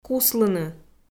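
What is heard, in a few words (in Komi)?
"куслыны", Komi-Zyrian, female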